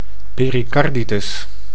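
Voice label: "pericarditis", Dutch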